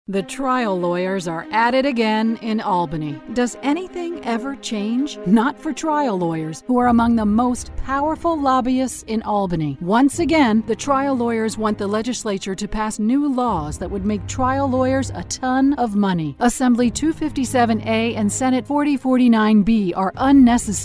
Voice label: Political Female VO